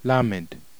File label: lamed, heb